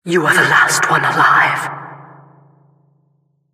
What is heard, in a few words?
announcer last man alive, vo